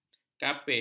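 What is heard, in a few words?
"kapis", Malay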